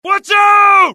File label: alert, dialogue generic US; mohaa